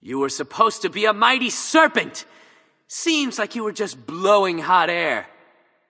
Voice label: taunt directed; kukulkan; smite; nezha

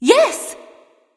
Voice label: emote yes; smite; arachne

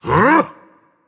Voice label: Confused, Dragon; fafnir

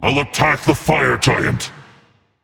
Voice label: vox self attack; smite

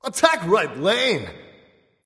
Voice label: vox attack; smite; raijin; voicepack

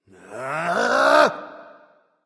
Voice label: battlecry; smite; raijin

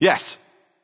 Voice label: emote yes; smite; mercury

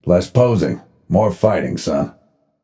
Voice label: taunt directed; apollo; smite; Ullr

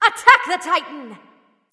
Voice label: vox attack; smite; nox; voicepack

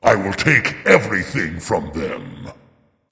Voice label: vox emote dragon; smite; fafnir

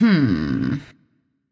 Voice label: thinking; Smite; athena

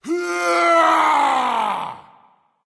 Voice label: battlecry, voice; smite; voicepack; ymir

